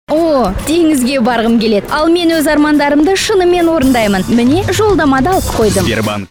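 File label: Kazakh, commercial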